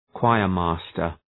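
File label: "choirmaster", English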